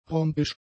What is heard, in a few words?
"rhombisch", German; adjectives